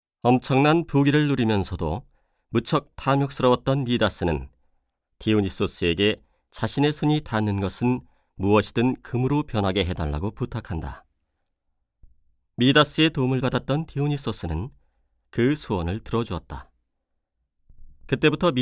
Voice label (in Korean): 내레이션; 도덕